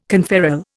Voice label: "conferral", Indian